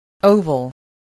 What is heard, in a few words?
"oval", English voice